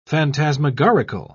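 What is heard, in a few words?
"phantasmagorical", English, British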